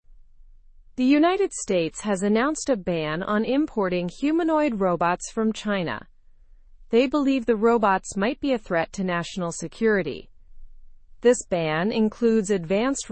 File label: learn english through news lower intermediate normal; us bans new chinese humanoid robots